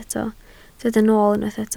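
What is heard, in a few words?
"nool" Welsh, spoken word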